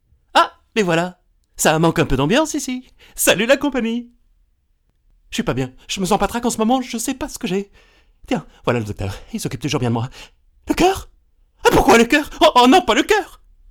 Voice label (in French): Dessin animé